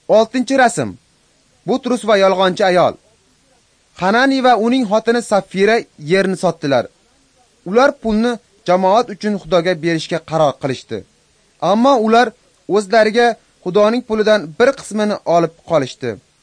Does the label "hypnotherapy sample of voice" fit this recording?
No